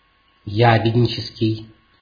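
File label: "ябеднический", Russian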